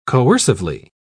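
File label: "coercively", English, British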